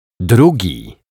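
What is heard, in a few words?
"drugi", Polish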